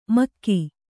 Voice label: "ಮೆಕ್ಕೆ", Kannada

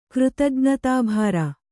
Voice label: "ಕೃತಜ್ಞತಾಭಾರ", Kannada

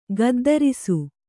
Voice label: "ಗುದ್ದರಿಸು", Kannada

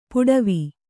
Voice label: "ಪಡಾವು", Kannada

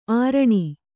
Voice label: "ಅರಣ", Kannada

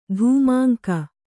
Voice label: "ಧೂಮಾಂಕ", Kannada

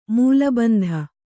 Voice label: "ಮೂಲಬಂಧ", Kannada; mūla bandha; noun

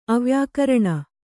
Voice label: "ಅವ್ಯಾಕರಣ", Kannada; avyākaraṇa; ungrammatical.

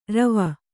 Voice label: "ರೋವ", Kannada

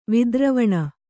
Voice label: "ವಿದ್ರವಣ", kannada

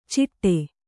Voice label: "ಚಿಟ್ಟೆ", Kannada

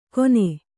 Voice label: "ಗೊನೆ", Kannada

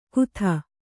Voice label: "ಖುದಾ", Kannada

